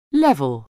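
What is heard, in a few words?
"level", English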